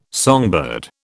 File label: "songbird", British